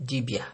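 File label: "dibia", Nigerian Pidgin, male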